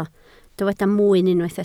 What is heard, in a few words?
"mwyn", Welsh